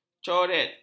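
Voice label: "coret", Malay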